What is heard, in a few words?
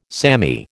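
"samey", American English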